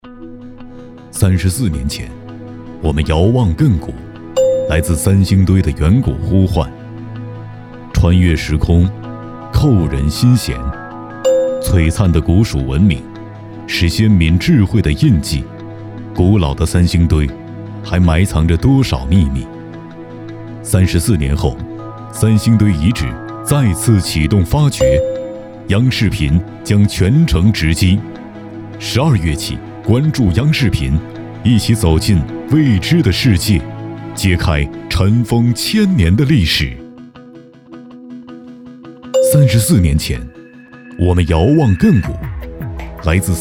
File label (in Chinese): 专题片; 三星堆重启发掘央视频